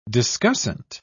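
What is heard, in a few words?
"discussant", British English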